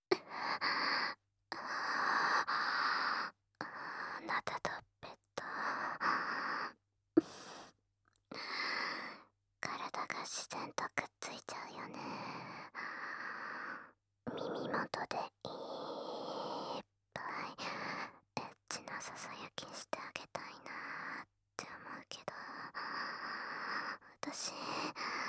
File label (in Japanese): 耳元で囁き＆耳舐め（両耳）; ASMR